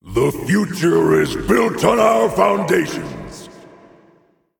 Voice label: Male Titan Trigger

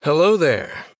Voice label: Male Human Greetings

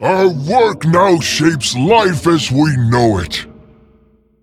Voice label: Male Titan Keeper

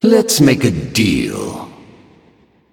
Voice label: Male Ethereal Emote Greetings

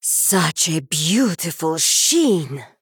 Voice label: Female Draenei Play

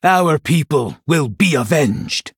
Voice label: Male Blood Elf MIRROR START

